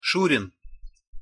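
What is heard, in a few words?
"surin", Russian pronunciation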